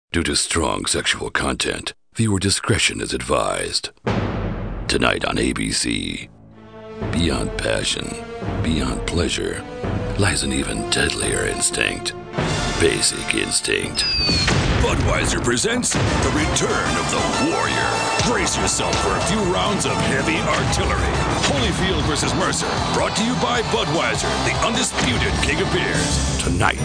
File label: Promos